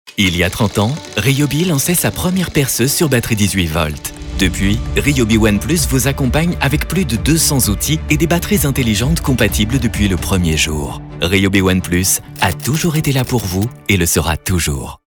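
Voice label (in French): rassurant, comédien voix off homme; RYOBI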